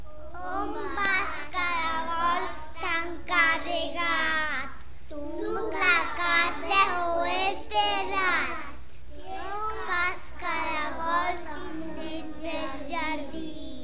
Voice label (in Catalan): poema cargol